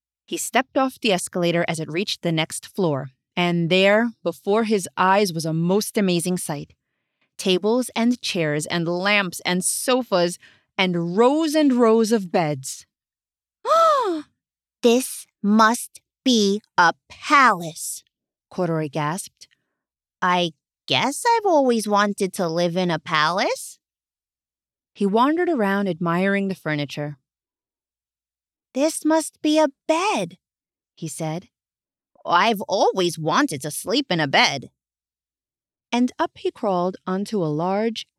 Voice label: 3rd Prsn Childrens Fic